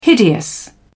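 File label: "hideous", English, British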